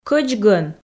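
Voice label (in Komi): "кӧч гӧн", Komi, female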